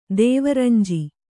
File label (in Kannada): "ದೇವರಂಜಿ", Kannada